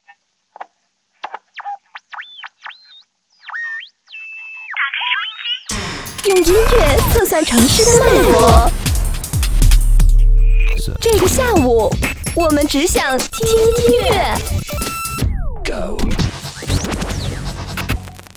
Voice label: 女-广告-电台片花

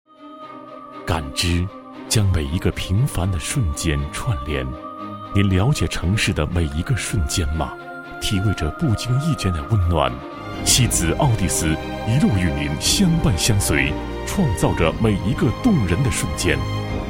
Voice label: 男 广告 品牌形象宣传, 国语男声配音; 奥蒂斯; 磁性

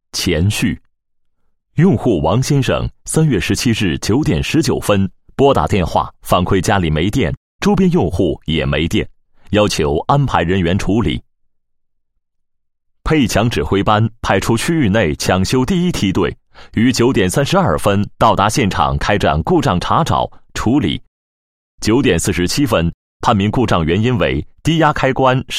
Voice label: 男 解说词 电力抢修步骤解说词, 配音案例

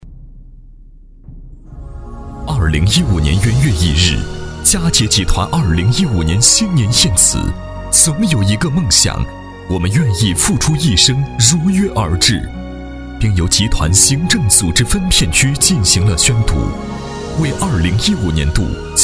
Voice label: 男, 国语男声配音, 大气专题; 2015年度嘉杰集团大事记

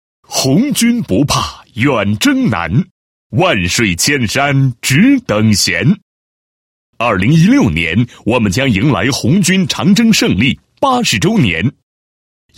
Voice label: 男 历史文化 解说配音; 邮票上的长征

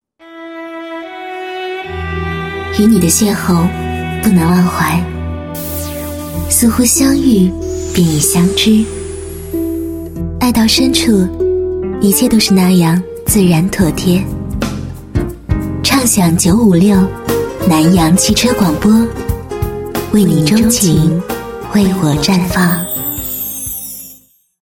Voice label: 女19 片花 雅致 知性 憧憬, 国语女声配音; 南阳邂逅篇

